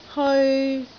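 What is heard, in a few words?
"heoi3", Cantonese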